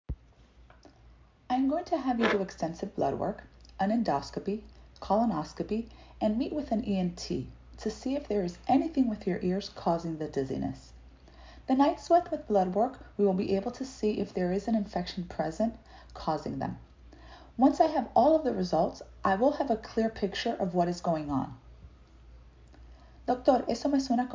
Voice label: Medical Certified Oral Spanish Practice